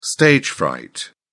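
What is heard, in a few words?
"stage fright", British English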